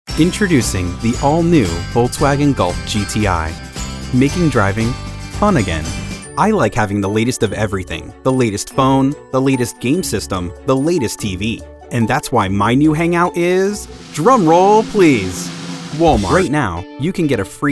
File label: Commercial